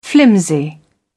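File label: "flimsy", British English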